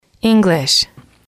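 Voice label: "english", female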